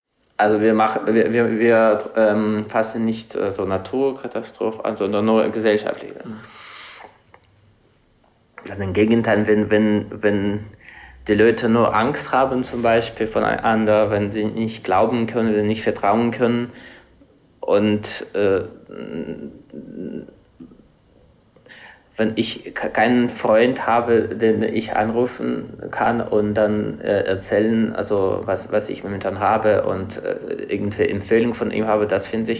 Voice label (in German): russisch